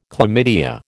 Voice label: "chlamydia", American